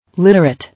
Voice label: "Literate", English